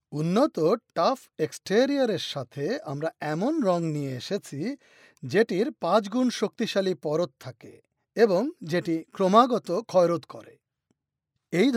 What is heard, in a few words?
male bengali voice over narrative; bangla